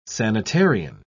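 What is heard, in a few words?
"sanitarian", British English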